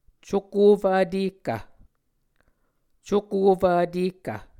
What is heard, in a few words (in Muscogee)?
"cukofv vtehkv", Muscogee; cukof-vtēhkv; Mvskoke Words with Voicing Consonants; A Dictionary of Creek/Muskogee; SIL International